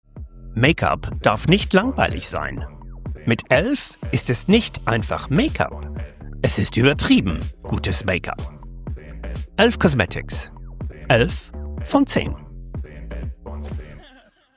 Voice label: GERMAN elf commercial reel